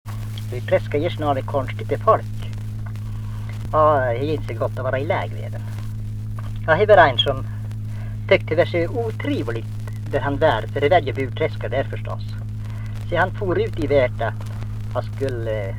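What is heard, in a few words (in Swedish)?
Norsjö Västerbotten, Svenska sagor, dialekter; Folkminnen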